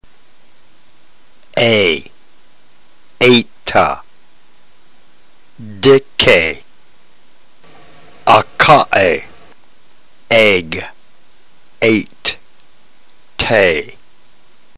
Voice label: drill, ntgreek